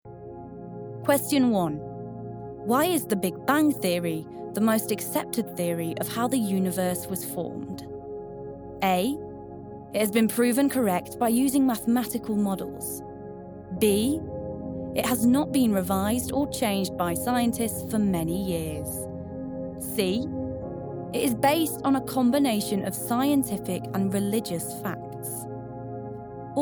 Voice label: english british e-learning

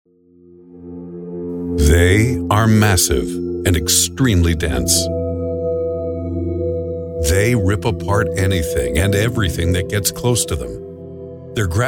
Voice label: English Canadian documentaries

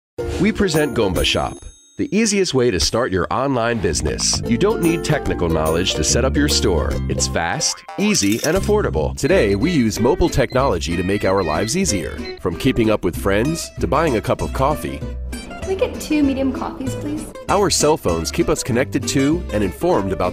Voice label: english american explainer videos; American English voice over professional with over 20 years of experience

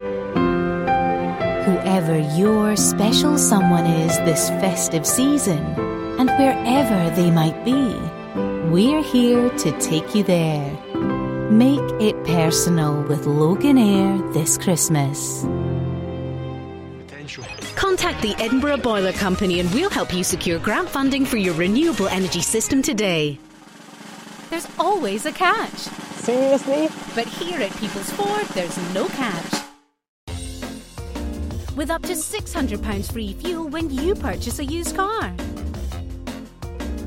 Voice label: English scottish commercial; Englisch (Schottisch); Australien; schottische Synchronsprecherin

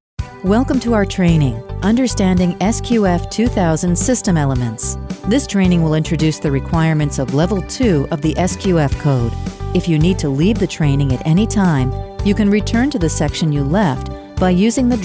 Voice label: english international e-learning

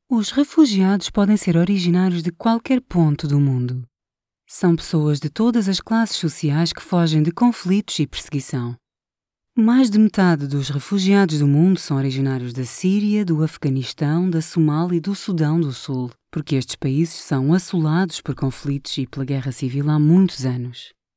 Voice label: portuguese narration

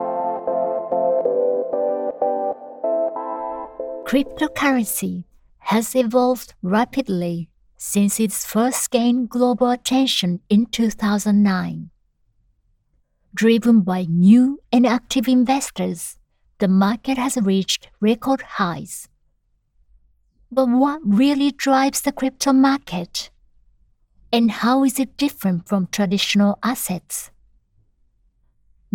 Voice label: english e-learning; locutora japonesa nativa

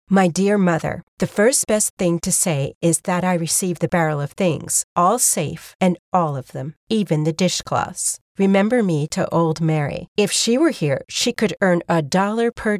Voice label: english american others

